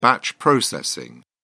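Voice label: "batch processing", British English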